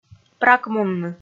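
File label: "пракмунны", Komi, female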